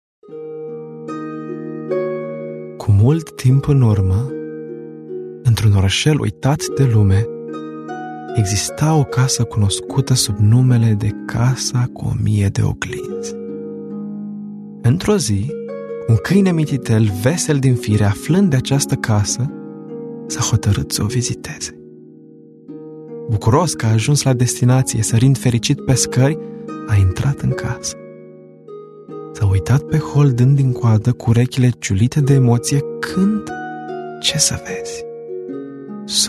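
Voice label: Romanian, male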